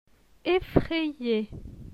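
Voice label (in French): "Effraye", French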